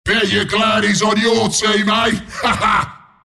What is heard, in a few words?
announcer kill follow up; killing spree; dota; announcer dlc bristleback